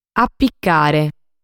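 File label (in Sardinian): "Apicare", Sardinian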